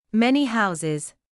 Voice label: "many houses", English

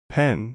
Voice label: "pen", English

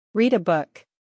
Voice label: "read a book", English

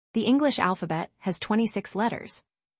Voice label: "The English alphabet has 26 letters", English

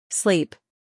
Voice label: "sleep", English

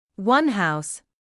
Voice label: "one house", English

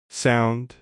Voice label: "sound", English

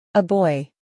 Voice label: "a boy", English

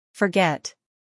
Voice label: "forget", English

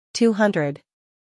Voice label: "two hundred", English

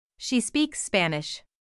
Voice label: "She speaks Spanish", English

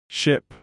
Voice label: "ship", English

